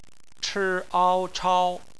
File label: "chao", Chinese; bpmf